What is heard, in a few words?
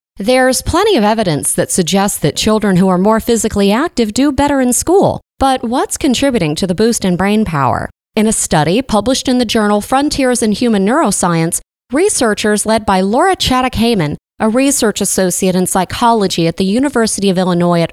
Newscasting